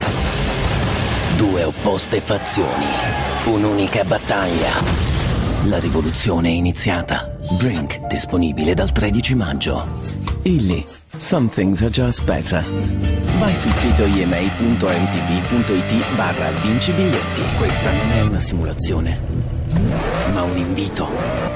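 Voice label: commercials, Italian, English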